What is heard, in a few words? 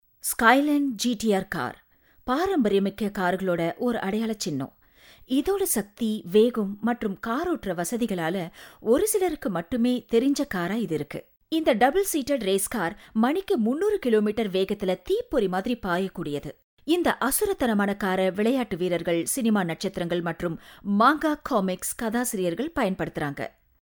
female tamil voice over narrative